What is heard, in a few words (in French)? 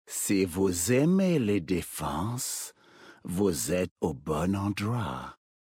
VF Homme Flirt; Troll Zandalari; Defenses